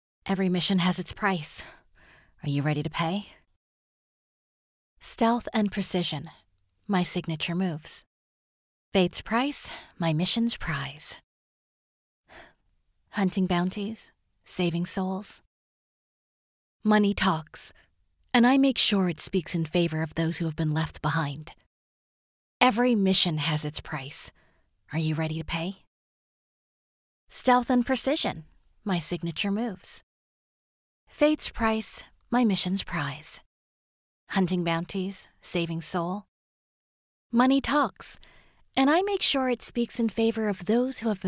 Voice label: Audio Drama

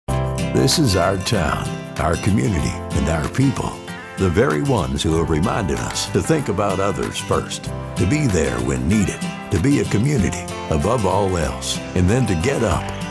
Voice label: Southern

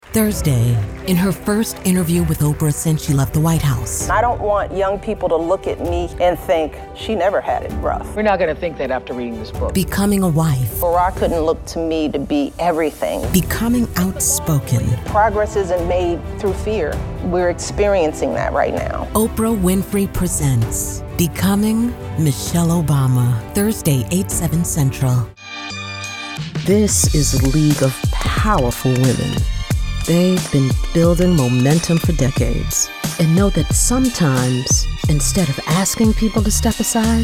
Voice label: Promo

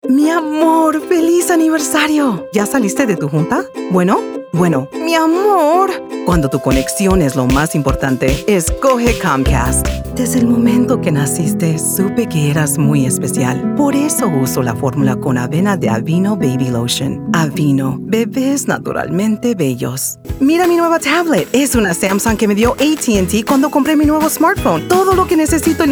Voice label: Spanish Commercial, voiceover

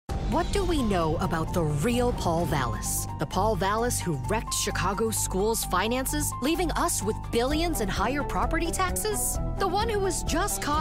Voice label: American Accent Attack Ad; political; voiceover; Brandon Is Better

